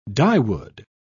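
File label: "dyewood", British English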